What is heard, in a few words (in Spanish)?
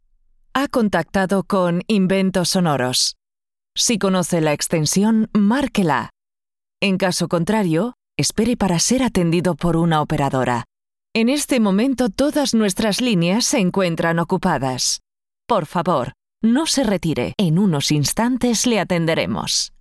female spanish centralita